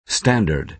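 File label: "standard", American English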